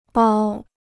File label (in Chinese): "剥", Chinese